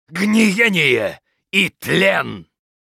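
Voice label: Hero Critical Hit Russian, Мужчина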